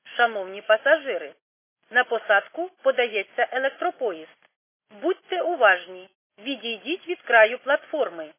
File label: khs st female, subway station